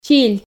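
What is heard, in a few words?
"чиль", Komi, female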